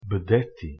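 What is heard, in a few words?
"bdeti", Bosnian-Croatian-Montenegrin-Serbian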